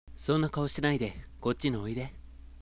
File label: sample sweet mid, voice